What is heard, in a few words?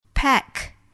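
"pack", English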